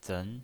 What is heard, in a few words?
"zen2", pinyin